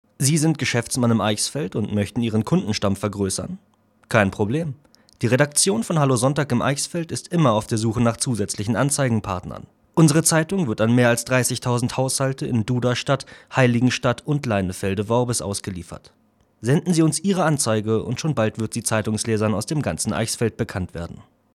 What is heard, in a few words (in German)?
werbung, sprecher